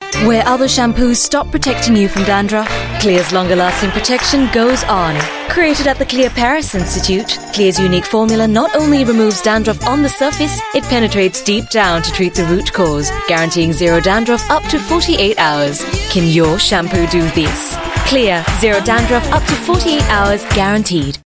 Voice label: Asian English Pitch